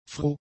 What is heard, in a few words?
"froh", German; adjectives